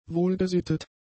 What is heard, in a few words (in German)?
"wohlgesittet", German; adjectives